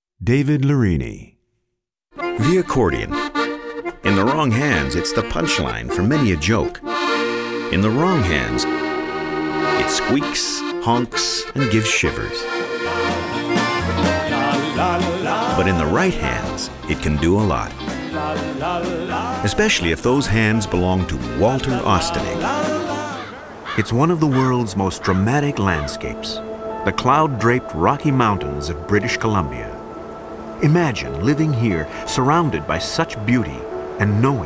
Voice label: narration